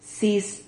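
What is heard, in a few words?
"cis", Spanish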